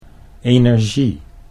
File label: "energie", Dutch